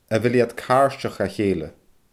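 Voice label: "a bheil iad caairdeach dha cheeile", Scottish Gaelic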